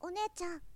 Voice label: shonen onechan